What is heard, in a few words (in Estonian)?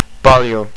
"palju", Estonian; A lot